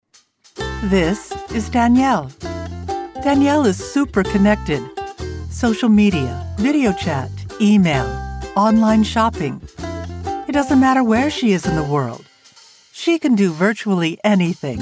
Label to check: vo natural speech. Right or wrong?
Wrong